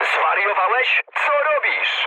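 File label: Polish player killed ally mood medium; speech; War Thunder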